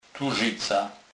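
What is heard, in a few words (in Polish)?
"turzyca", Kurpie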